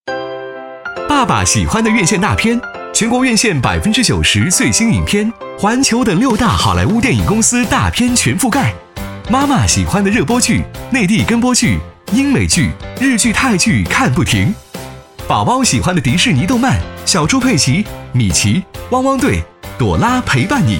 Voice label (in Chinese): 广告 食品饮料 活力, 男; 全家桶